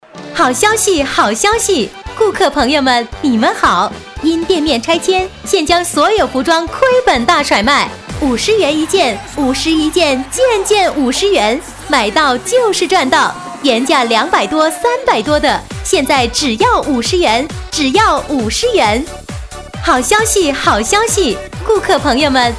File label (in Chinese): 促销 服装亏本大甩卖, 国语女声配音